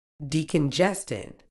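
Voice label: "decongestant", British English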